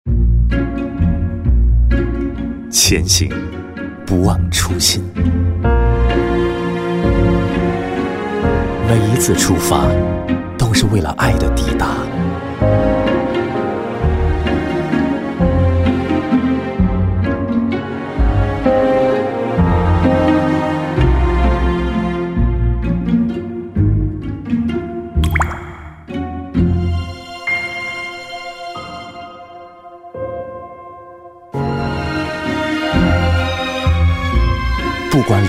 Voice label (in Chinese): 广告_家居家电; 海尔卡萨帝